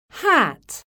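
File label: "HAT", English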